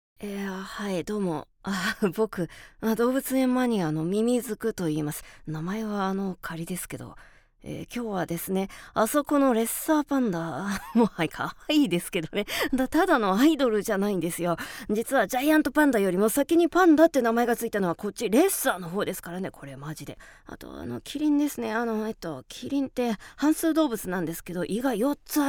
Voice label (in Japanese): クセ強めオタクキャラ セリフ; VOICE SAMPLE